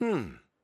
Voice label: Emote Positive